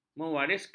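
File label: "mewariskan", Malay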